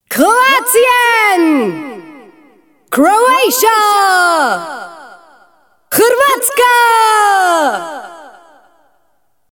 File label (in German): Speedminton WM Ansage Kroatien